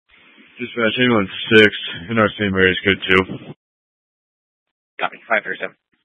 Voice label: Fire Department Dispatch; Grand Junction